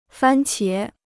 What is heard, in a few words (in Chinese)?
"番茄", Chinese